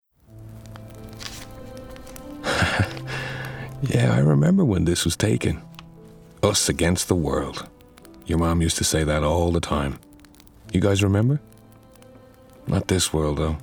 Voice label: Gaming Everyman